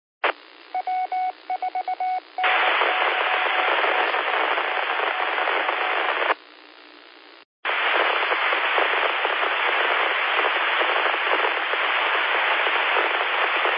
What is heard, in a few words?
qso rec simplex logic